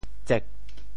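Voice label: "tsek4", Teochew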